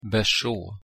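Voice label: "berså", Swedish pronunciation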